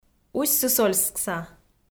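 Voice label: "устьсысольскса", Komi, female; kpv audio dict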